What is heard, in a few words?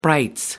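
"brights", British English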